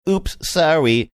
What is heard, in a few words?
saying oops sorry funny